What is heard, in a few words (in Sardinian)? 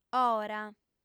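"ora", female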